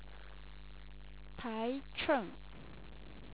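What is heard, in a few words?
"taicheng", Chinese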